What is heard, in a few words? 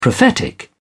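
"prophetic", British English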